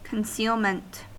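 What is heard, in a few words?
"concealment", American English; pronunciations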